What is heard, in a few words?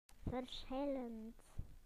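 "different", British English; PictureDictionary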